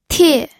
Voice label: "tie4", pinyin